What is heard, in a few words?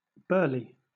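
"burley", British English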